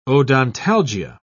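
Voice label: "odontalgia", English, British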